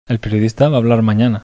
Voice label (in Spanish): acento oracional foco objeto, bizkaia masculino; spansk; fonetica